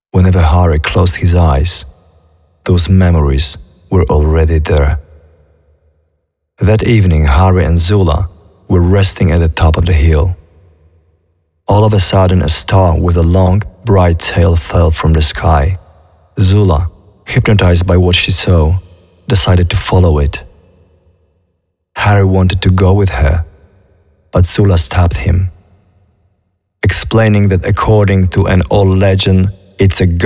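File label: Voices VO English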